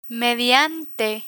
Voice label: "mediante", Spanish; learn spanish